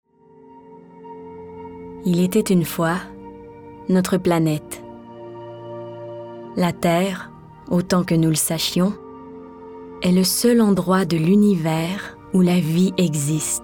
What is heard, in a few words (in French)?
Narration